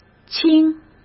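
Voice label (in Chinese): "青", Mandarin; chinese flashcards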